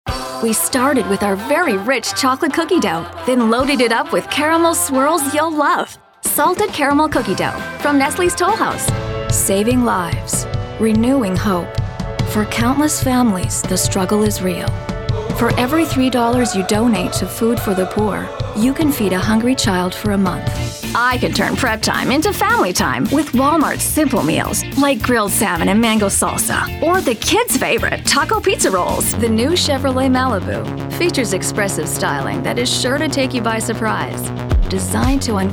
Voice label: 20s 30s Female Commercial

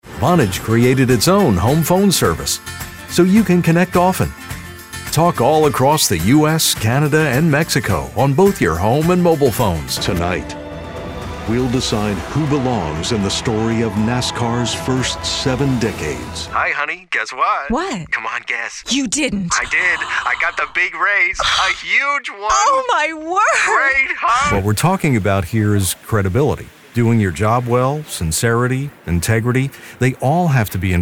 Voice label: Straight Voice Montage

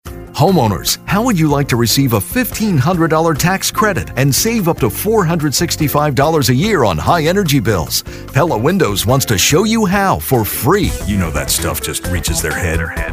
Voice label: Medium Sell

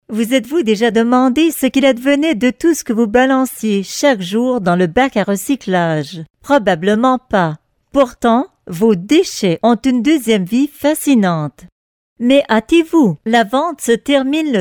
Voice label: 40s 50s Female French Canadian Narration

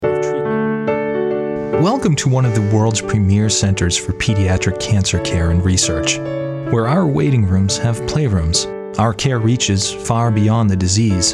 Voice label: 20s Male Narration

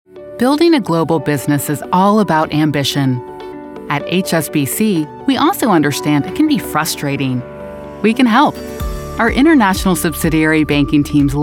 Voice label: 30s40s Female Narration

